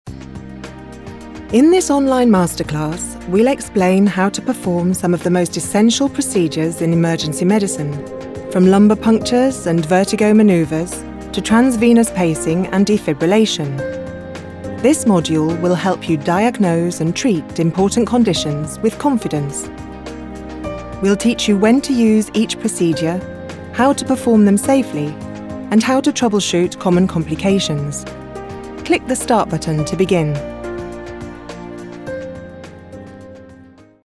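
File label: Medical E-learning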